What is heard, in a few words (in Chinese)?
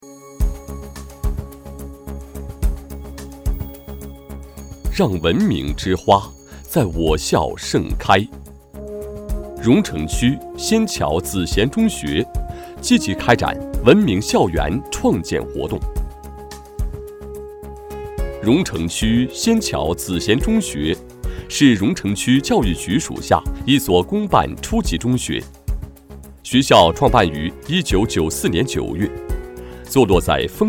男 国语 磁性 激情, 专题; 文明之花